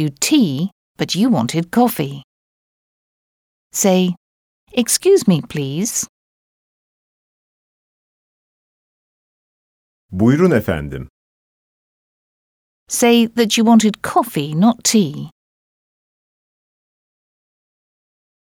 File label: Speaking, Turkish